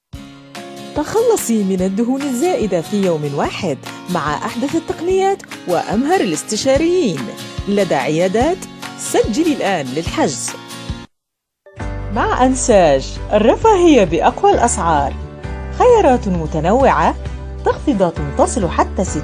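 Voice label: Arabic, female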